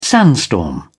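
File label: "sandstorm", British English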